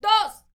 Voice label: MEX FEM, MEXICAN; ILIO; Vocal Planet VOL-5